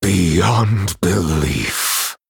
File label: Male Night Elf Wow